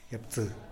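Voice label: "yapsï", Tlacochahuaya Zapotec; nopal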